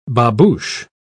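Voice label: "babouche", British English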